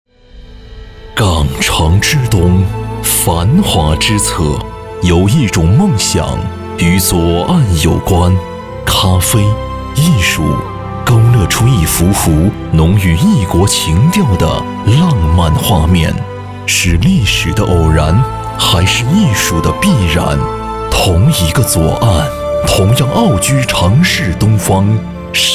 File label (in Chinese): 地产广告; 凯捷利左岸